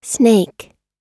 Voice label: "snake", pronunciation